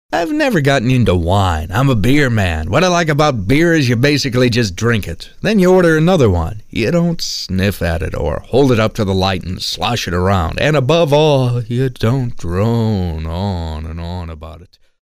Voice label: real people guy next door

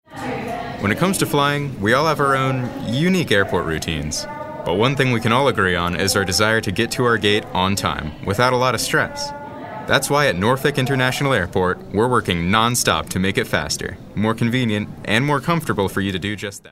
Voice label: anti announcer

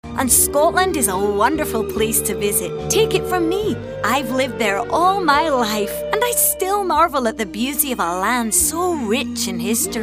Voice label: scottish

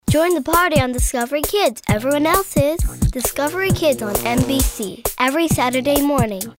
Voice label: animated

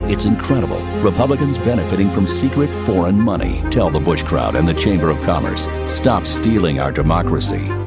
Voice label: political authoritative biting antagonistic

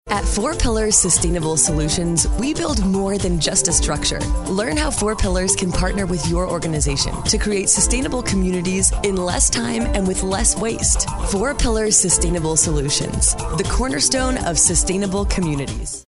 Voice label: friendly

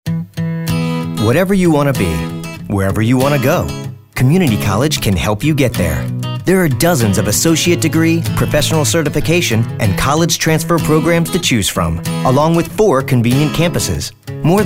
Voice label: Friendly

